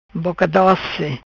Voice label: "Boca Doci", Macanese